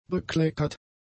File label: "bekleckert", German; adjectives